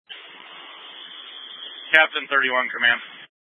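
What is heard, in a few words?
Incident Tac 2, Scanner audio recording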